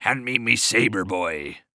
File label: Human Pirate Say Saber